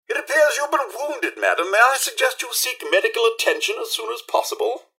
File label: robots dialog hello; mrhandy; npc